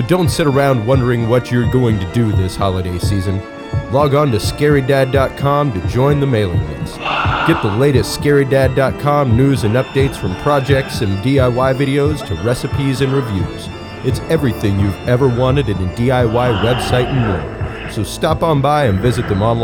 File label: Podcast Promo; Scarydad